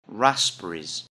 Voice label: "raspberries", English; FRUIT

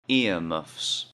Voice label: "earmuffs", English; Outdoor Clothes

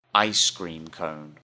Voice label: "ice cream cone", English; Common Prepared Foods

